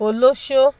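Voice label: "olosho", Nigerian Pidgin, female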